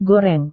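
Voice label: "goreng", Indonesian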